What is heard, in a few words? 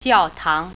"church", Mandarin